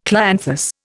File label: "clianthus", Indian